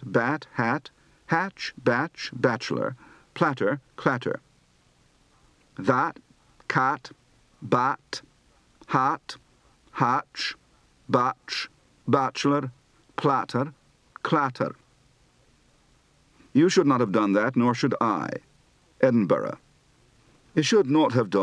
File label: Scottish, Accent